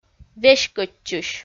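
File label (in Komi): "веськӧдчысь", Komi, female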